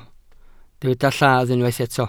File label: "lladd", welsh